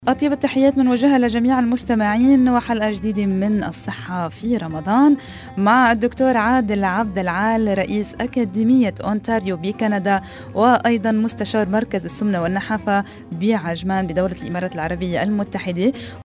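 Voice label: arab female voice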